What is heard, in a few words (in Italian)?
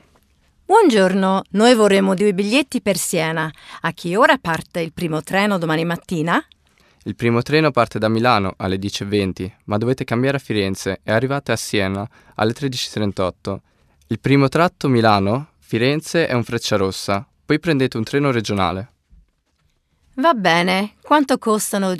Dialog Barbara e Serena